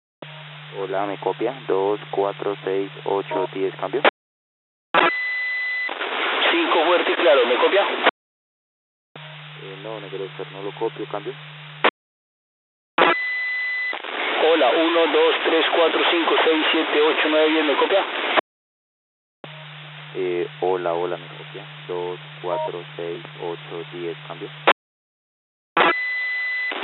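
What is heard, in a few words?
Spanish Test Counts, Satcom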